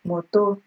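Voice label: "moto", Nigerian Pidgin, female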